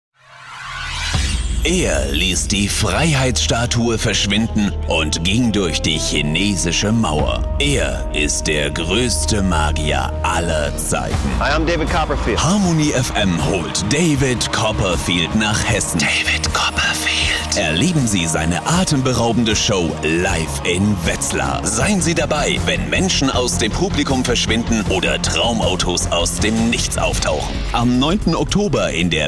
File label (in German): Trailerproduktion